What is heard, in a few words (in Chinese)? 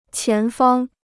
"前方", Chinese